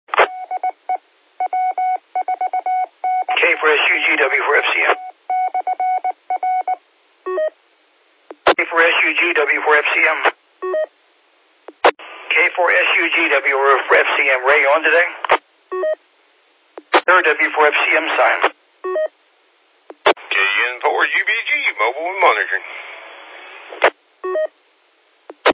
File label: qso rec repeater logic